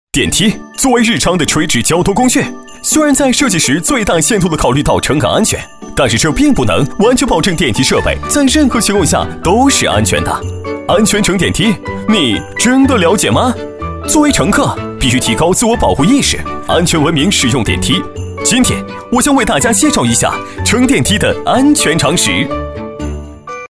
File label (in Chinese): 宣传片 病毒 电梯乘坐安全, 男国